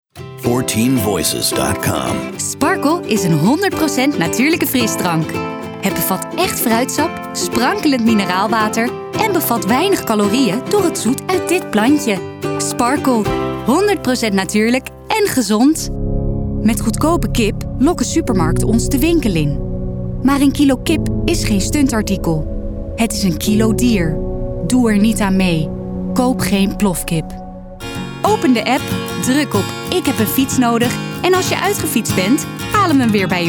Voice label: Commercials